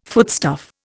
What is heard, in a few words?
"foodstuff", Indian